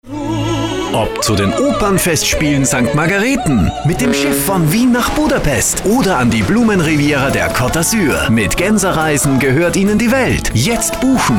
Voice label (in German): antenne spot